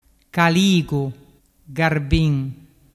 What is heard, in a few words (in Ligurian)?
"caligugarbin", dialettu